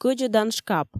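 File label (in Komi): "кӧдзӧдан шкап", Komi, female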